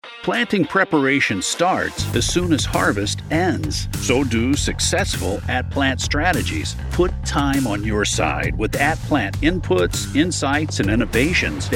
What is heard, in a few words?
Commercial; FMC